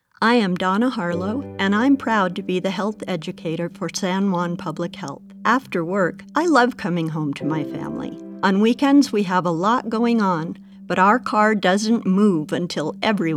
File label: Radio Key Leader; San Juan